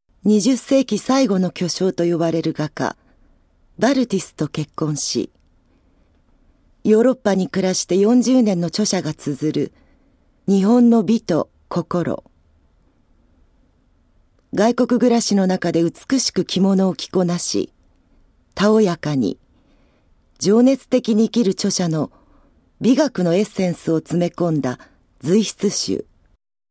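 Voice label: narration, female voice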